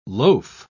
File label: "loaf", English